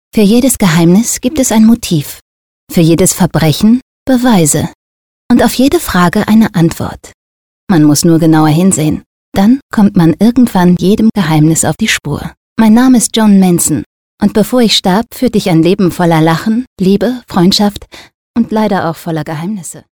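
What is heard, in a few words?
DE F narration, German, female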